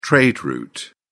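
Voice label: "trade route", British English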